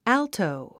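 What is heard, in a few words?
"alto", English; 発音 ǽltou アルトウ; 意味 （音域の）アルト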